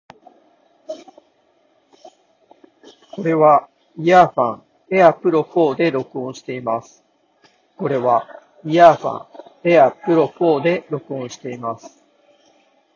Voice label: air pro 4 voice